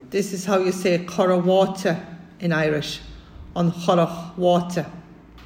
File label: Currawatia, M; an chora bhaite; townlands